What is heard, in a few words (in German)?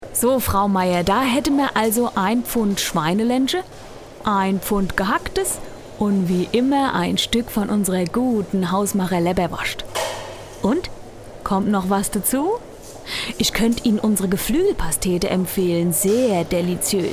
Hessisch